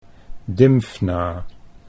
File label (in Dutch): "Dymfna", Dutch